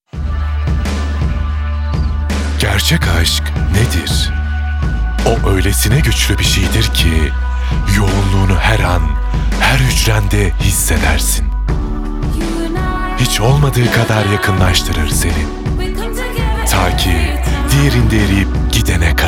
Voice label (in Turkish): reklam